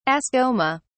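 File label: "ascoma", British English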